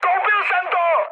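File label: aircraft destroyed, Czech, mood high; War Thunder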